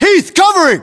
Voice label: US response covering, battlechatter, english